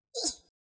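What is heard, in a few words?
Ooff, Spanish; Default es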